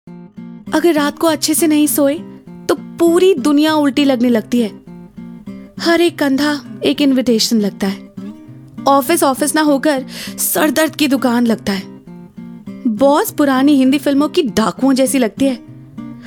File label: Female